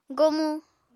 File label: "gomu", Apatani